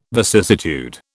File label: "vicissitude", British